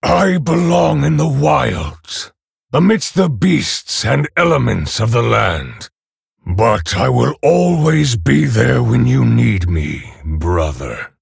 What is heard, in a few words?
Male Orc Ogre